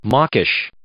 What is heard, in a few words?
"mawkish", English